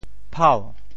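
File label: "phau2", Teochew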